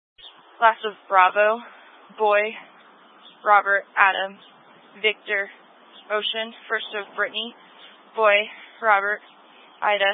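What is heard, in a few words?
Sheriff Dispatch, scanner audio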